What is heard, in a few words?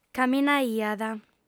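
"caminaiat", female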